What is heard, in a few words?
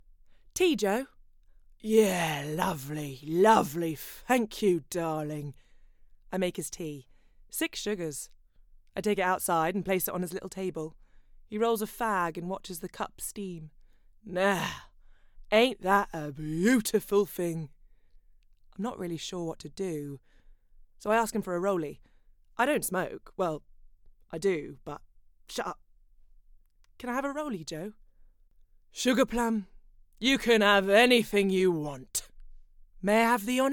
Character Reel